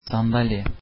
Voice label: turkish chair